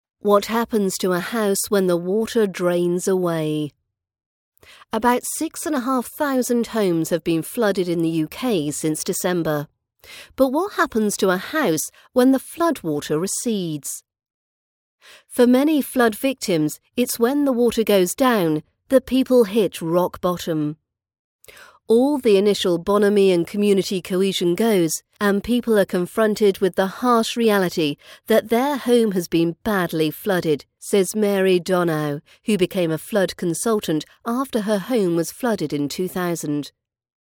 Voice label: news article for british accent; what happens to a house when the water drains away

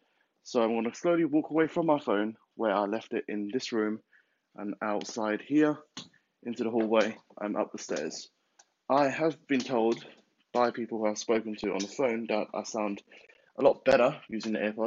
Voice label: apple wireless airpods mic

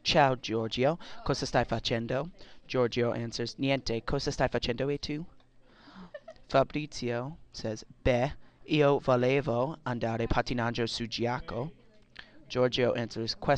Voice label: phone dialouge

